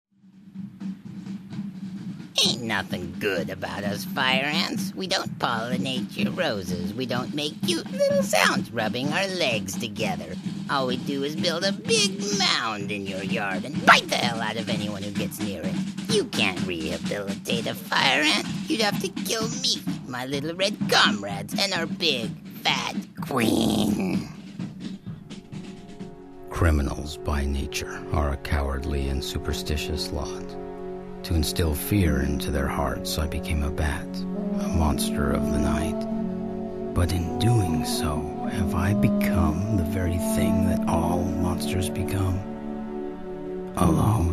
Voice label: Character